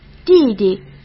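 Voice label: "弟弟" Mandarin Chinese; chinese-flashcards; hsk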